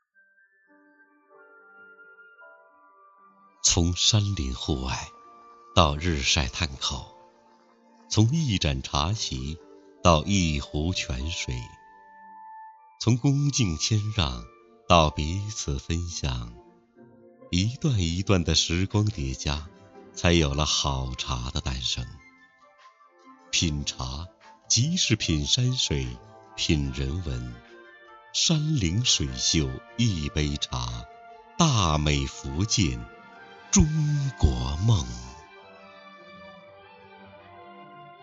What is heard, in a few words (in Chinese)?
福建茶广告